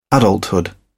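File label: "adulthood", British English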